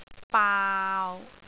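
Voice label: "baau6", Cantonese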